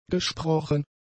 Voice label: "gesprochen", German; adjectives

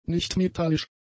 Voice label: "nichtmetallisch", German; adjectives

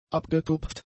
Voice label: "abgetupft", German; adjectives

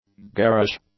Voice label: "garish", English

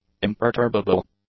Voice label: "imperturbable", English; adjectives

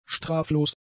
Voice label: "straflos", German; adjectives

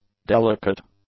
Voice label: "delicate", english; adjectives

